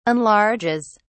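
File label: "enlarges", British English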